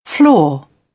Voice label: "floor", British; AmericanBritish